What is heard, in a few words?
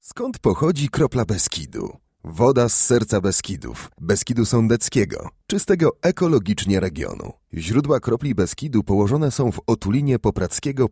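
Polish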